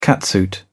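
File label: "catsuit", British English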